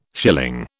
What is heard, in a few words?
"schilling", British